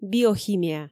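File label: "биохимия" Komi, female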